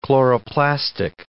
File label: "chloroplastic", English